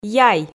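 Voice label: "яй", Komi, female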